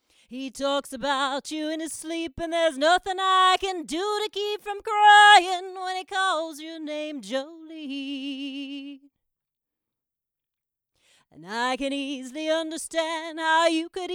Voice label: Mic Shootout sm86